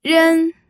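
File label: "ren", Mandarin Chinese, first tone; pinyin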